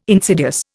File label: "insidious", Indian